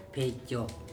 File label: "pHecO", andamanese; fish